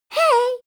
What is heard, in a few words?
girl "hey"